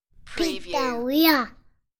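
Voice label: Toddler Pizzeria, Toddler says; infant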